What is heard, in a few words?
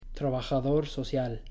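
"trabajador social", Spanish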